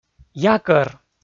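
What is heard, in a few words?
"якӧр", Komi, male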